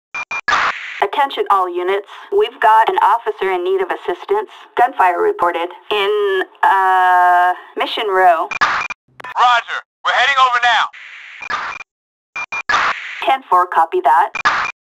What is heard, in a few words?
Dispatch sounds Gun fire Officer in need of assistance; GTA V AUDIO